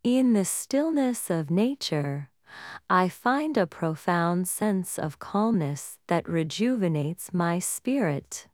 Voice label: Female Calm; TTS Overview